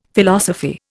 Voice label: "Philosophy", Indian; Dictionary